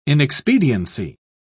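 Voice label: "inexpediency", British English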